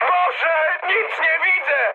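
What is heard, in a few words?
searchlite, mood high, Polish speech; War Thunder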